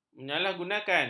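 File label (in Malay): "menyalahgunakan", Malay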